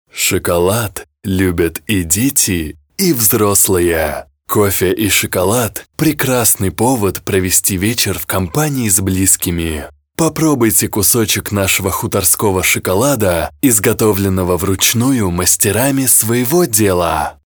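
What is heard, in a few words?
Russian male Sweet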